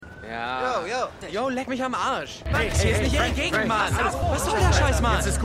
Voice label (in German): Junger Schwarzer, Stimmproben; LAW & ORDER